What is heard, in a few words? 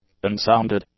"unsounded", english; adjectives